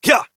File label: Horse Sprint Adult; Duviri